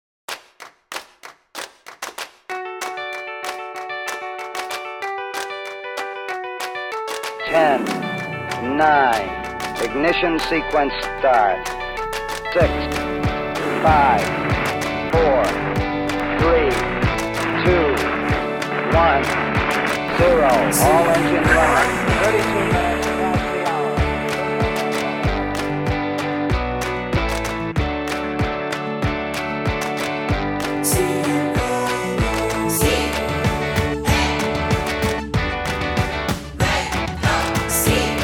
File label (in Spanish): RADIO entrada